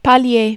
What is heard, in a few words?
"pallier", French